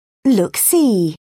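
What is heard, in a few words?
"look see", British English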